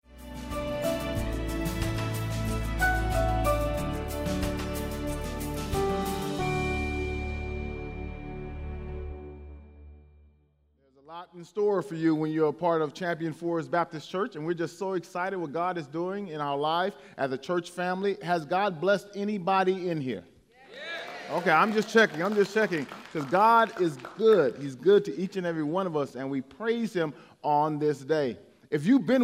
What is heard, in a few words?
English Sermon